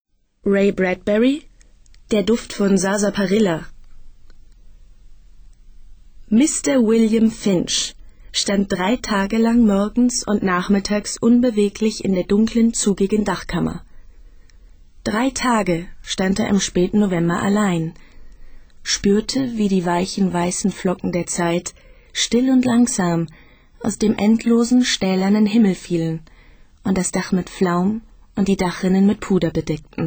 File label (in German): romanlesung, frauen; ray bradburry